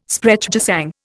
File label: "Sprechgesang", Indian